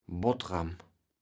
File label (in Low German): "Bottram", kaevels platt